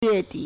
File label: "yue4di3", Chinese